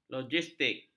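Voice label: "logistik", Malay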